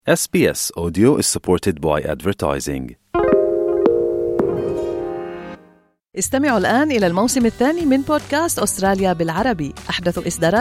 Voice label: arabic, newsflash

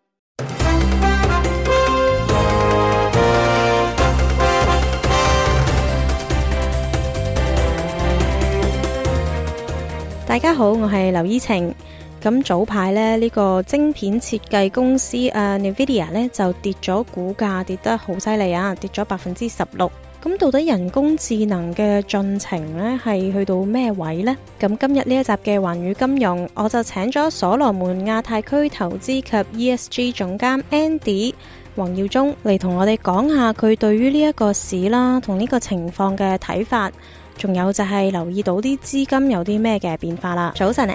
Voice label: cantonese; sbs

